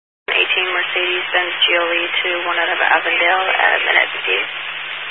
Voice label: Highway Patrol, Phoenix Metro West Area, scanner